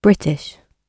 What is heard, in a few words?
"british", British English